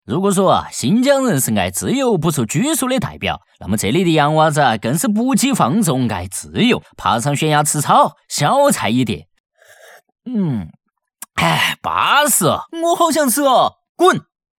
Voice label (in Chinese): 四川话 美食 短视频